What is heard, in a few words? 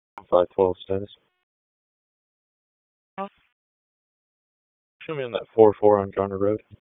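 Raleigh Police Southeast District Dispatch, p25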